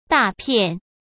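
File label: "大片", Mandarin Chinese; hsk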